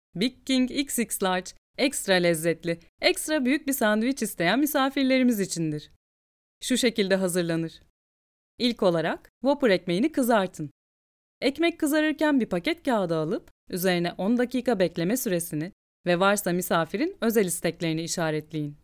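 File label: Turkish female elearning; Burger King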